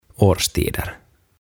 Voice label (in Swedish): "årstider", ruotsiksi; OTAVA KONSERNI; aantamisohjeet ruotsiksi